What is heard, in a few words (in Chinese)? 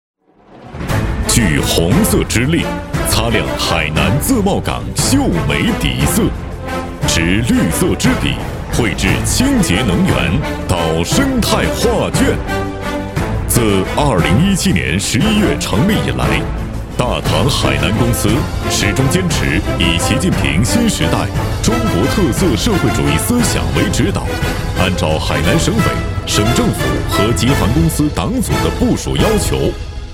专题大气沉稳; 大唐海南